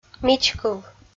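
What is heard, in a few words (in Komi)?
"мичкыв", Komi, female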